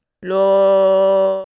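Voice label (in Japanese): "ろ", Japanese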